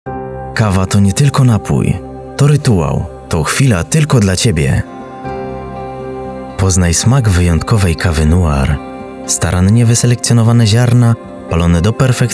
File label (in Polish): reklama